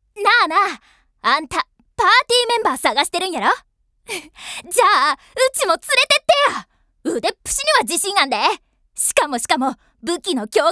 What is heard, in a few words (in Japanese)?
10代大阪女子